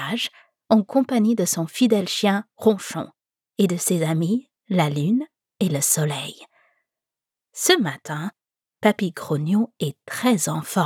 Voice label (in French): Conte Enfant FR